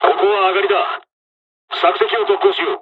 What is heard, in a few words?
dialog, dialogs japanese; War Thunder